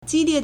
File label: "ji1 lie4", Chinese